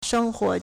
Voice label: "sheng1huo2", Chinese